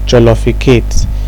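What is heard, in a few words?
"jolloficate", Nigerian Pidgin, male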